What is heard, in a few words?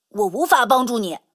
Speech Quick Commands No Way